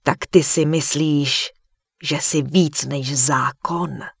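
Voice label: Human Female Warrior NPC Pissed, Czech; wowpreklad; czwow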